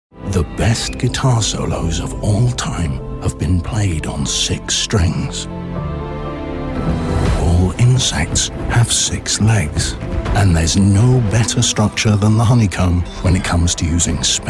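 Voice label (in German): UK English, Werbung, Kraftvoll; VW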